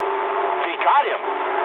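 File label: English aircraft destroyed mood med; speech; War Thunder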